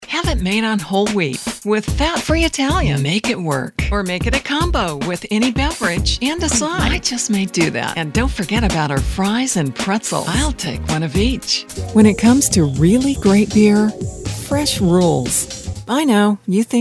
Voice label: Commercials